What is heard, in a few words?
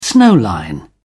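"snow line", British English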